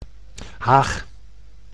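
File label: "haag", Dutch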